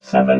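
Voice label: "seven", robot voice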